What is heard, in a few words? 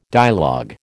"dialogue", American